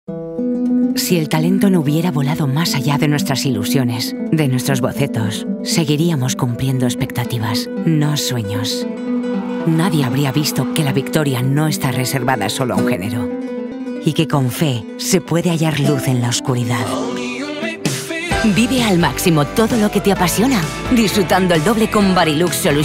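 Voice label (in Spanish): generico España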